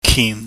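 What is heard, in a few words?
"kim", Cantonese, low falling tone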